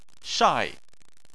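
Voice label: "shai", Mandarin Chinese, fourth tone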